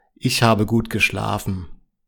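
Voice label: gut geschlafen, German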